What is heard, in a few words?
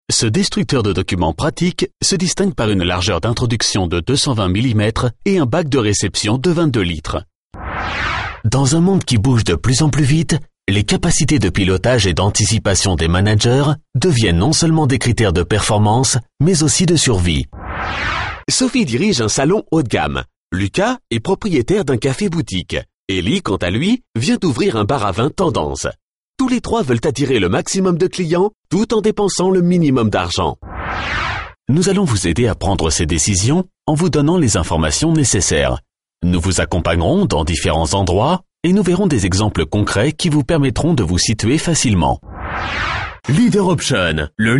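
French, male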